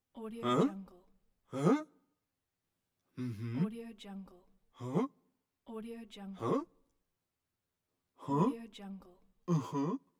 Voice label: confused male